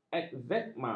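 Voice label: "ekzema", Malay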